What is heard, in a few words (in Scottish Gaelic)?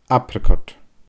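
"apracot", Scottish Gaelic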